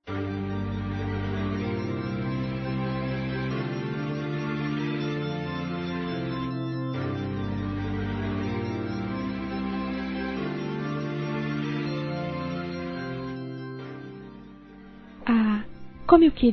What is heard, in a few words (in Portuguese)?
Declaração Feminino; Telemensagens; Declaração de amor; Telemensagens 2017